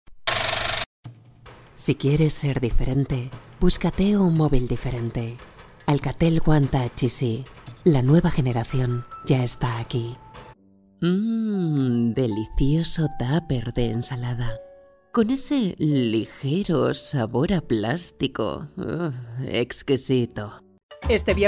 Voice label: Locutora espanola

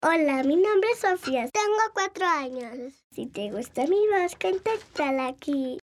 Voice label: locutora, ninios

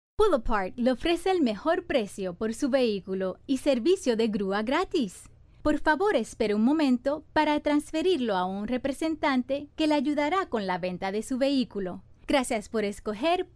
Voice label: Locutora puerto rico

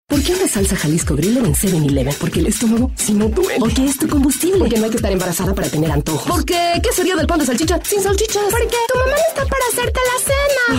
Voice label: Locutora mexicana

